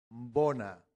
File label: "bona", latin; santillana